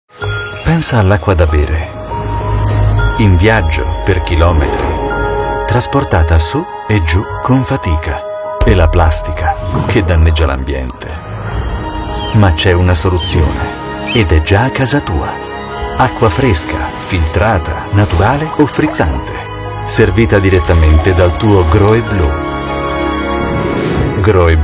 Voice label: COMMERCIAL, Italian, male